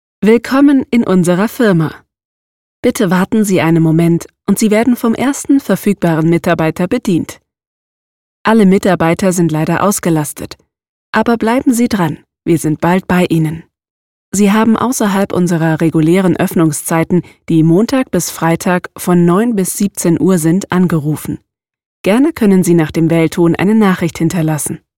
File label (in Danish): DE NEUTRAL KVINDE; Stemmebasen